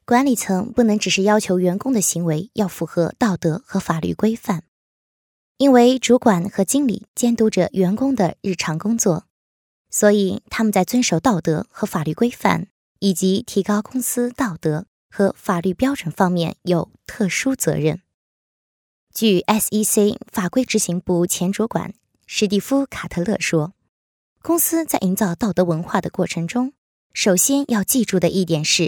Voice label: female chinese voice over narrative, mandarin

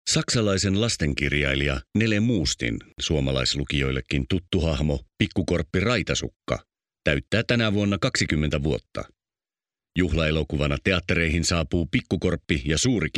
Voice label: male finnish voice over narrative

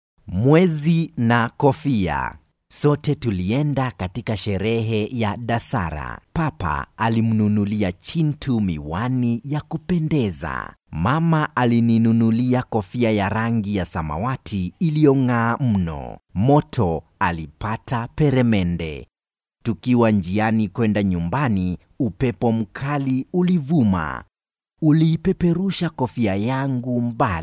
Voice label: male swahili voice over talent character

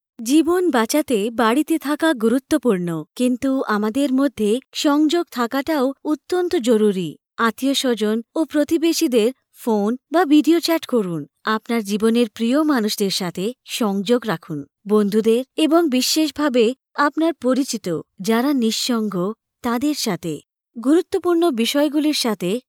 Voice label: female bengali voice over narrative; bangla

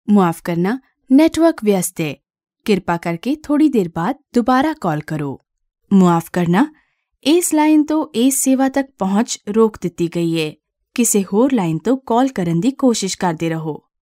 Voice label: female punjabi voice over narrative

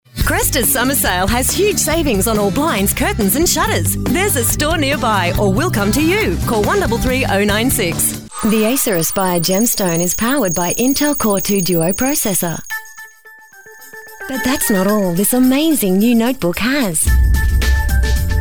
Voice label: female australian voice over sales

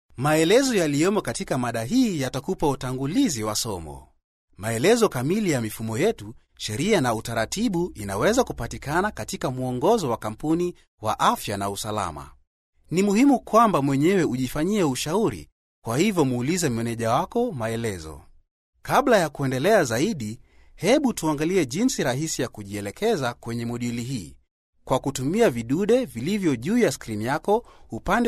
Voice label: male swahili voice over narrative

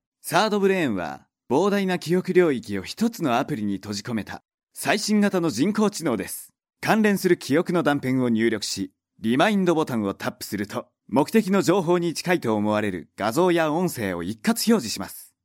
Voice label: male japanese voice over talent narrative

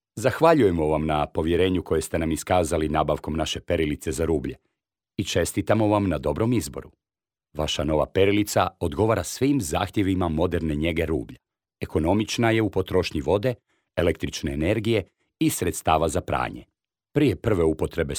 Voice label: male croatian voice over talent narrative

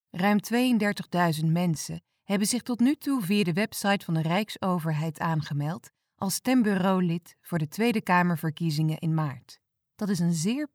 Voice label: female dutch voice over narrative

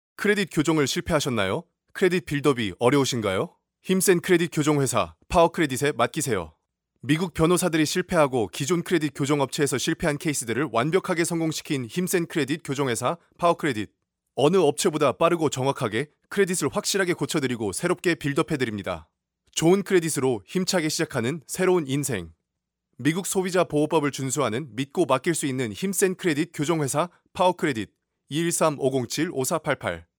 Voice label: male korean voice over talent sales

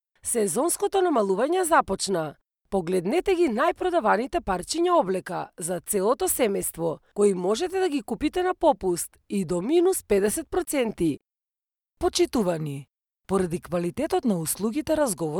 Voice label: female macedonian voice over narrative

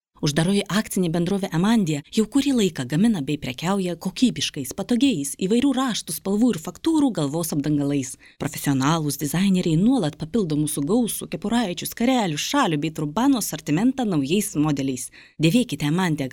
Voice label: female lithuanian voice over sales

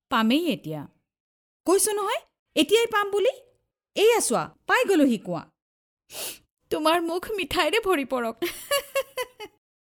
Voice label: female assamese voice over character

